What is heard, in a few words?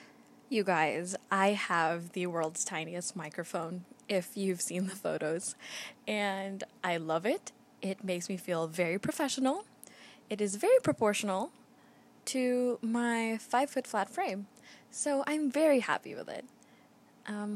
Baby Mic Test